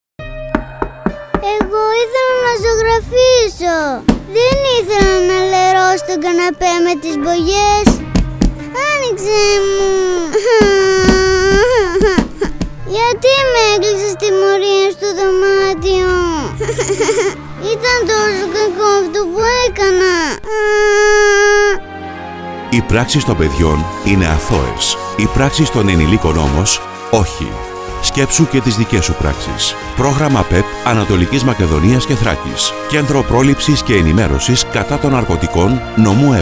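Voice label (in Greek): άνδρας, Ραδιοφωνικά spot; Ραδιοφωνικό Spot Νο2; ΚΕΝΤΡΟΥ ΠΡΟΛΗΨΗΣ & ΕΝΗΜΕΡΩΣΗΣ κατά των Ναρκωτικών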